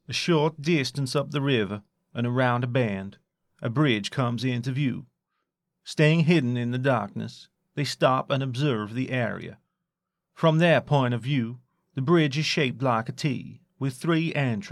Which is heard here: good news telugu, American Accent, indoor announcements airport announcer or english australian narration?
American Accent